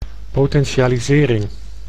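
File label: "potentialisering", Dutch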